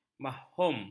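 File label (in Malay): "mafhum", Malay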